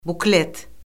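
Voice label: "buklet", Azerbaijani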